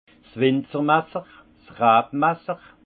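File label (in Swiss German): "winzer masser", Haut Rhin; vin; serpe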